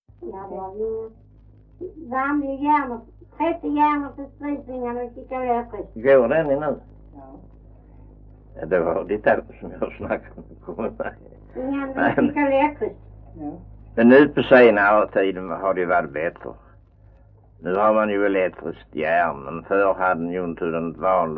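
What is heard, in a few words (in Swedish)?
Östra Ingelstad Skåne, dialekter; Folkminnen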